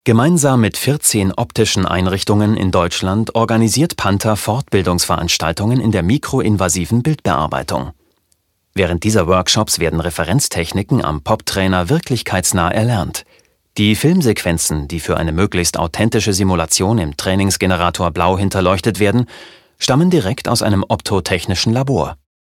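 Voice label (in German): commentary, Neutral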